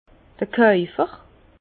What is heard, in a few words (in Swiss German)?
"kaufer", Bas Rhin; vin; acheteur